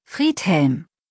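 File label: "Friedhelm", German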